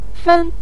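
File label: "fen", Mandarin Chinese, neutral tone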